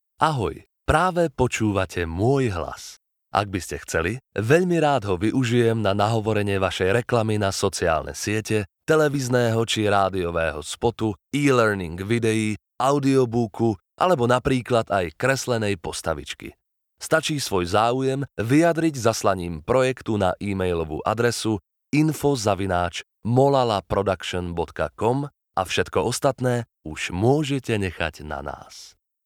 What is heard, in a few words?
slovak documentaries